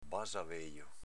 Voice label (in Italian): "basavejo", dialetto veneto